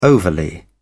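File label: "overly", British English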